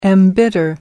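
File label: "embitter", British English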